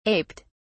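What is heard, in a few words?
"aped", British English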